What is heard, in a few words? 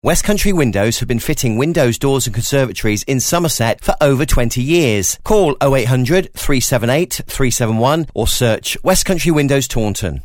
classified west country windows; business plus